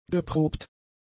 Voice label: "geprobt", German; adjectives